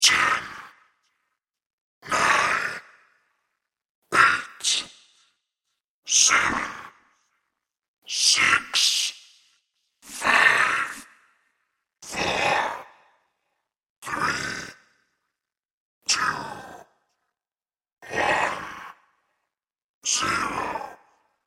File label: Countdown from ten to zero in alien voice.; Aliens